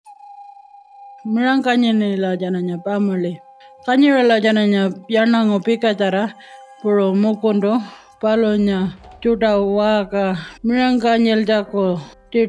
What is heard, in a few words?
Ngaanytjarra, radio; Carer Gateway